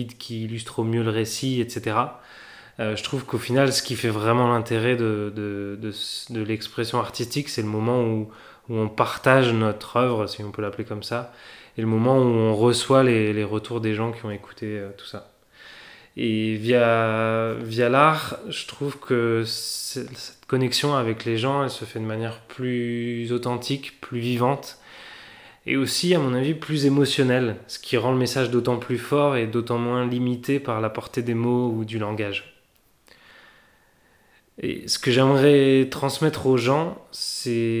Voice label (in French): podcast ambiance sonores